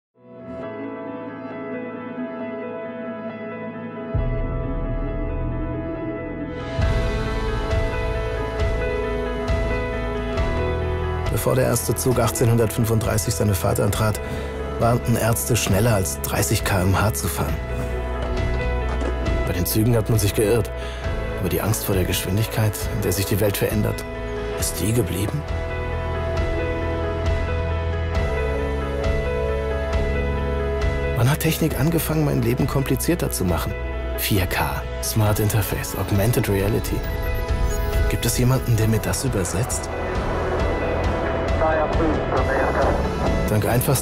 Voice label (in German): Imagefilm; db systel